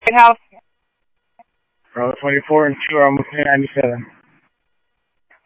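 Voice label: police radio dispatch, Scottsdale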